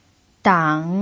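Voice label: "dang" third tone, Mandarin Chinese pinyin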